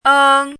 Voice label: "eng1", chinese; chinese-voice

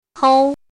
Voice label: "hou1", Chinese

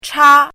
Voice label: "cha1", Chinese; chinese-voice

